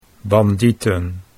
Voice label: "bandieten", Dutch

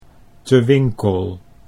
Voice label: "Te Winkel" Dutch

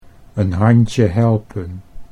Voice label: "een handje helpen", Dutch

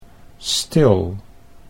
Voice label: "stil", Dutch

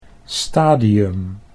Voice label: "stadium", Dutch

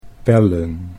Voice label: "pellen", Dutch

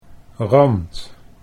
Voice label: "rand", Dutch

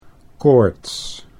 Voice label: "koorts", Dutch

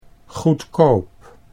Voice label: "goedkoop", Dutch

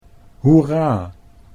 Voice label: "hoera", Dutch

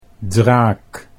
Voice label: "draak", Dutch

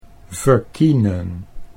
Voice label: "verkienen", Dutch

